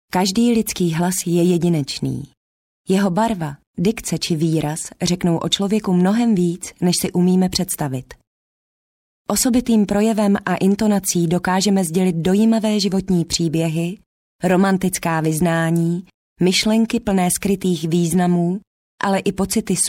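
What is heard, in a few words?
czech female narration; voice demos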